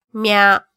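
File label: "mya", Japanese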